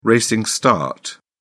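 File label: "racing start", British English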